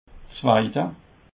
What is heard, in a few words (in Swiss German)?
"zweide", Alsace